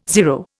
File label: "zero", Indian